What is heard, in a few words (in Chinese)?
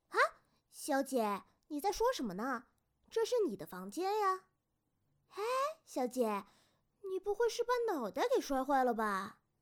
少女 古灵精怪